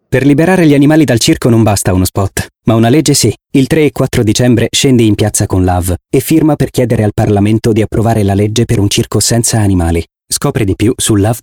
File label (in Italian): spot istituzionale